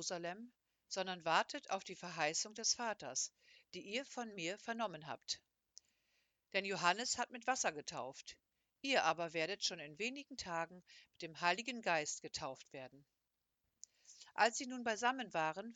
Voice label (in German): Lesung aus der Apostelgeschichte